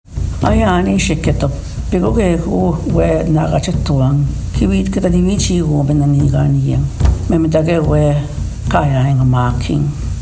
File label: Ojibwe